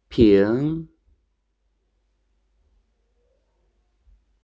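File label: "ping2", Mandarin Chinese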